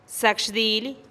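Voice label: zakxdilih buenos dias, Teotitlán del Valle Zapotec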